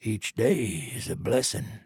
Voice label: trader male like greeting